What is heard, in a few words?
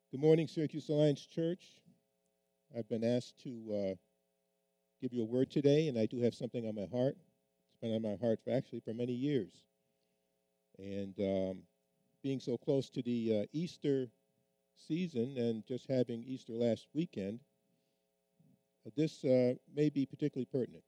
without noise; the cry of christ on the cross